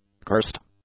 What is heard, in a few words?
"cursed", English; adjectives